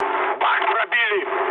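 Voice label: tank damaged, mood med, Russian; War Thunder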